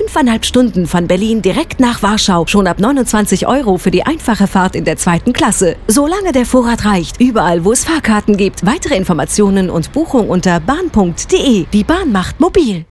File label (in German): werbung, deutsch frauen